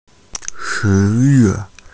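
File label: "shi2 yue4", Mandarin Chinese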